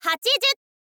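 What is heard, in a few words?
"hachizyu", Japanese, girl; voice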